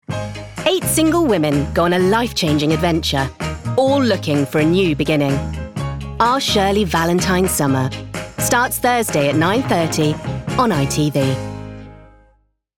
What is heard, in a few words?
Promo; Actress know for her roles in FX action/comedy adult animation series Archer